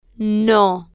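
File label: "no", spanish, slow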